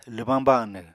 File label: "lwbáñ banw", Apatani